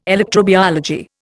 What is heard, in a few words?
"electrobiology", Indian